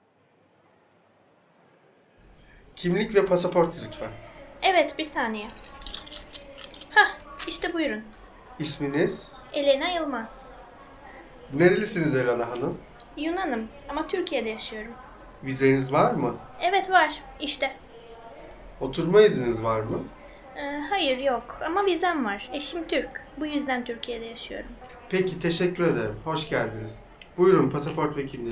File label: police airport, Turkish